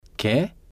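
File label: "que", Spanish, male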